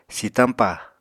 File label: "sitañpa", Apatani